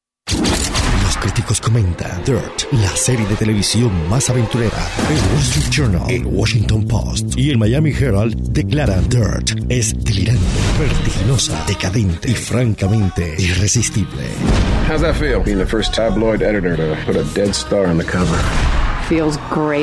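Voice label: TV PROMO SPANISH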